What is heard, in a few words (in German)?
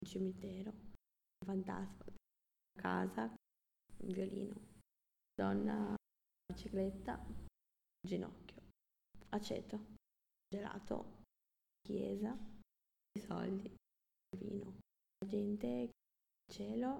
Zusammengefugte Worter Schnell Italienisch